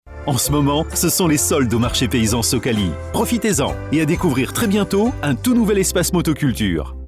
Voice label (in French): SPOT VOIX OFF; pub